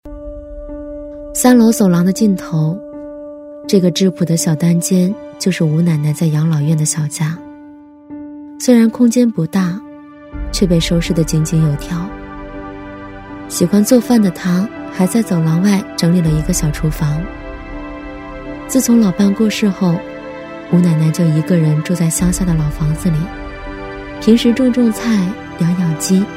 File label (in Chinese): 女 纪录片 低沉解说; 养老院 迟暮花开; 女B15-纪录片-【养老院 迟暮花开】-低沉解说